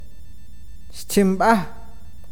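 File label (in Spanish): oído, awakateko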